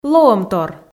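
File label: "лоӧмтор", Komi, female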